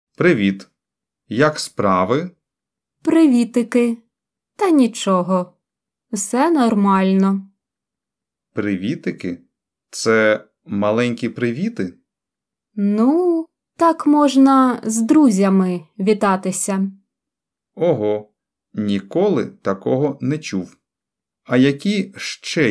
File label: Dialogue Slow